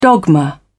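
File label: "dogma", British English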